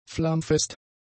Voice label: "flammfest", German; adjectives